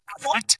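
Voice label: VoiceActing es, Male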